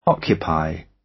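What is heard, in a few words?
"occupy", British; Life, leisure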